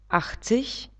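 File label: "achtzig", German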